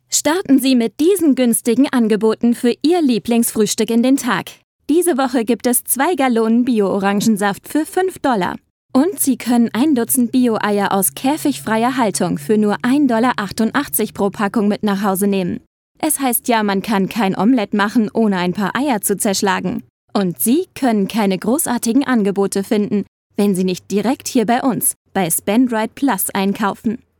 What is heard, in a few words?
Casual Conversational